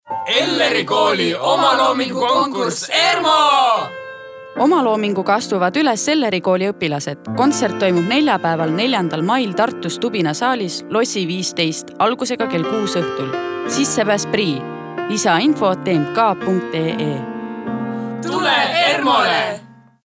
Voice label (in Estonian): reklaam; ERMO